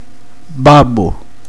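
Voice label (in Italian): "scemo", Italian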